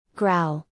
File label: "growl", English; звуки животных на английском; Сердитая собака; Salli